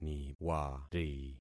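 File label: "niwari", concatenated syllables; Affixing experiment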